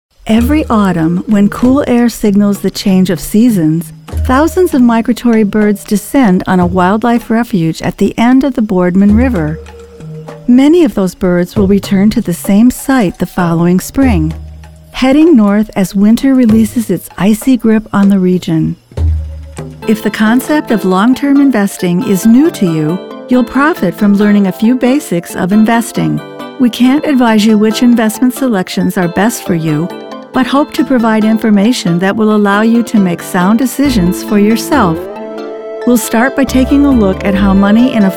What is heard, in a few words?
narration